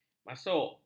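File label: "masuk", Malay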